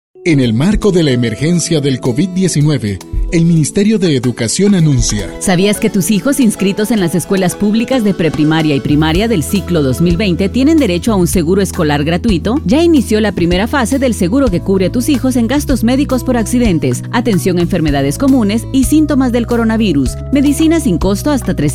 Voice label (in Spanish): Version Espanol